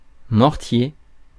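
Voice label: "mortier", French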